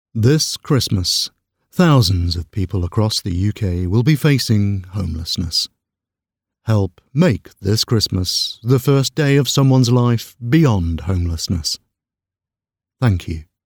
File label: voiceover commercial business charity